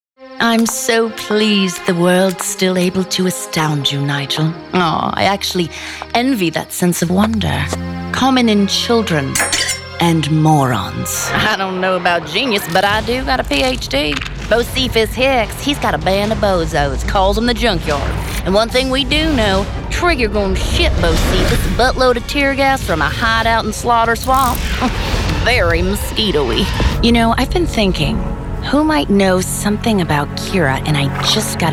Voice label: women video games